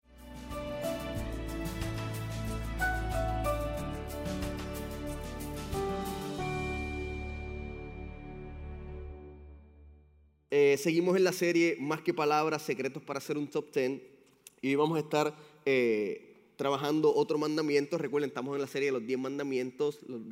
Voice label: Sermon Spanish